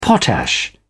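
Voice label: "potash", British English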